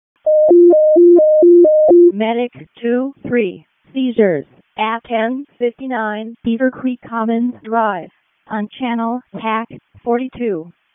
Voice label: EMS ALERT, p25